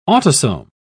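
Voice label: "autosome", English, British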